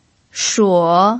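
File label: "shuo", Mandarin Chinese pinyin, third tone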